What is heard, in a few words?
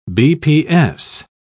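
"bps", English, British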